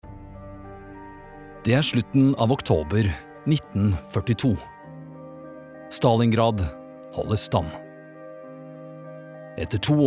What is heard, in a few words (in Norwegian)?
no dokumentar